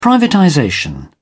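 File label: "privatization", British English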